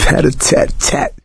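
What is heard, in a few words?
take submachine gun, voice, male; Left 4 Dead 2; coach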